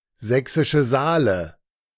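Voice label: "Sächsische Saale", German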